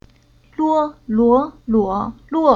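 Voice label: "Luo", Chinese syllable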